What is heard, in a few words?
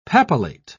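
"papillate", British English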